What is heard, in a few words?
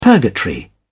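"purgatory", British English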